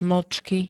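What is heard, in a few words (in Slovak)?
"mlcky", Slovak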